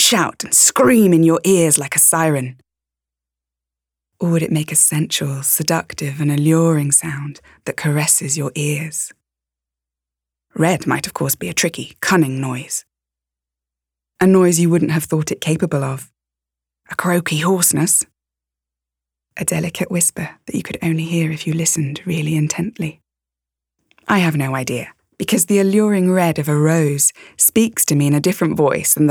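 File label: English UK The Colour Red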